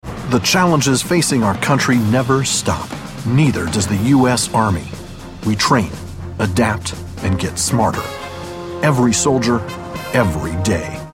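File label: us commercial, American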